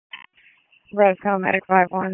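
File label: EMS Dispatch, p25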